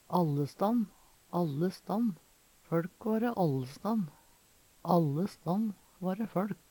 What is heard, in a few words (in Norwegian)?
"allestann", Numedalsmål